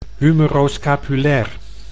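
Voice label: "humeroscapulair", Dutch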